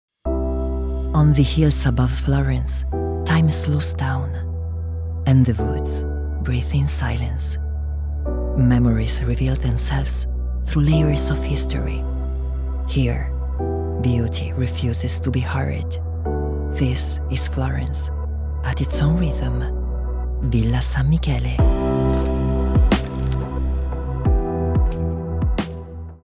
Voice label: english commercial, italian voice actors